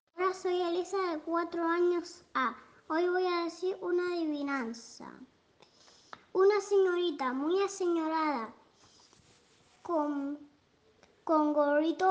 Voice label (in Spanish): adivinanza, 4 años